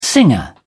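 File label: "singer", British English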